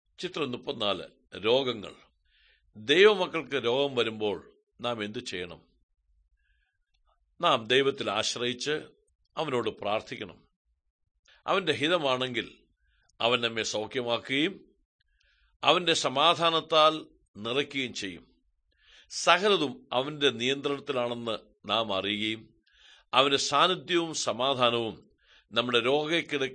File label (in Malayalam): good news malayalam, drama